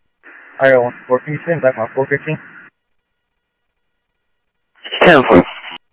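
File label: Sheriff East County, scanner audio trunk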